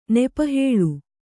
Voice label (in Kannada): "ನೆಪಹೇಳು", Kannada